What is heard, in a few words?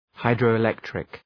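"hydroelectric", English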